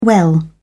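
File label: "well", British English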